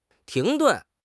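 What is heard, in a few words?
"停顿", Mandarin Chinese; HSK6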